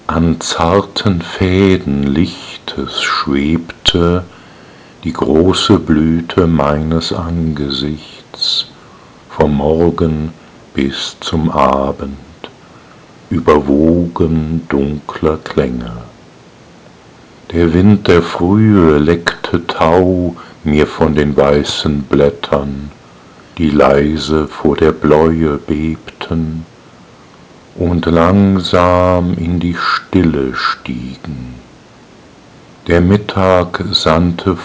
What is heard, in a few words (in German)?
Die weibliche Stimme